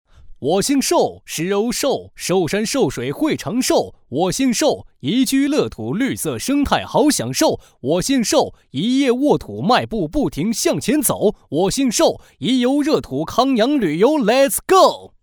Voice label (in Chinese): 男 喊麦; 男C11-喊麦-【长寿】